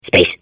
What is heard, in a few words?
babble; portal2